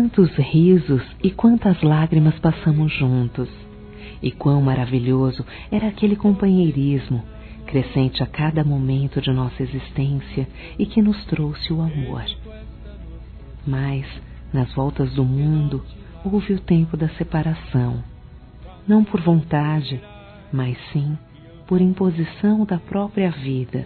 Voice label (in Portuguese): como vai você f; Dia Dos namorados; fem; Telemensagens 2017